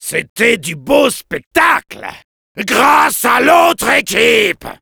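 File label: Soldier jeers, French; "C’était du beau spectacle… grâce à l’autre équipe !"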